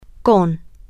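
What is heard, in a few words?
with, Spanish, female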